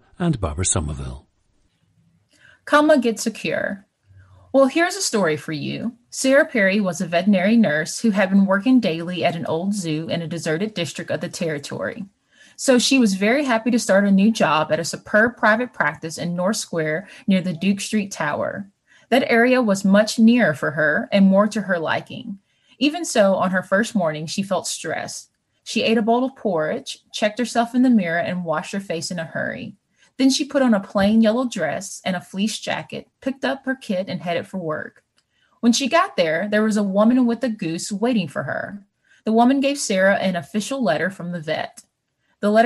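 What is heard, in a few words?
arkansas, International Dialects of English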